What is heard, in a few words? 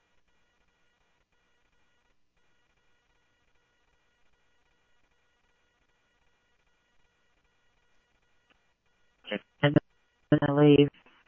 Police, scanner audio trunk; H-Scottsdale